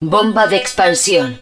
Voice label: hud spread bomb, Spanish